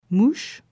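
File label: "Mouches", French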